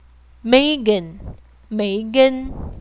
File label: Megan in chinese